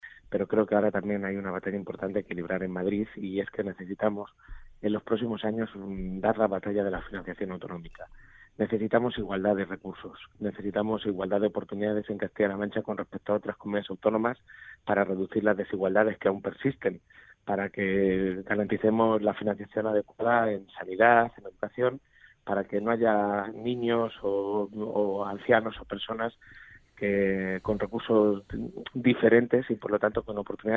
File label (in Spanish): entrevista radio